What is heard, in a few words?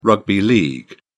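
"rugby league", English, British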